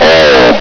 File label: "all", combine soldier; hl2